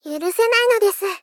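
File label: Moe, Angry, "Yurusenai nodesu", Japanese female; Rei Yumesaki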